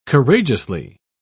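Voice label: "courageously", British English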